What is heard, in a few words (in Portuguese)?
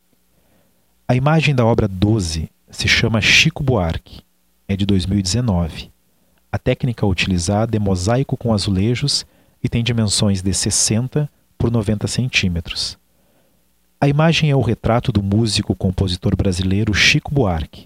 audiodescrição; galeria virtual